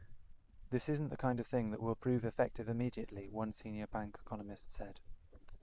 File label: Reverb far small